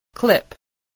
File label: "clip", voice